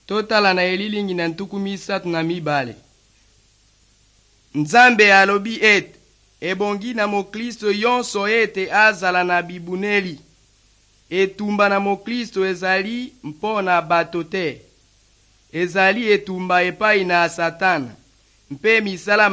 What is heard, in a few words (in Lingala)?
good news lingala west, drama; bible; rdc